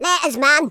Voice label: byebye, speech, Madchester